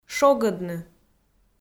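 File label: "шогӧдны", Komi, female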